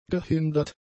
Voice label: "gehindert", German; adjectives